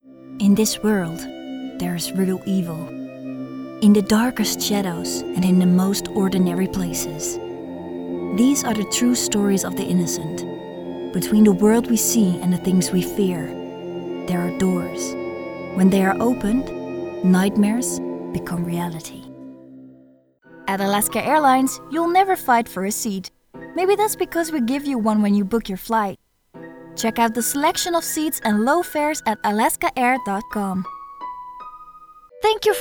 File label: Commercial English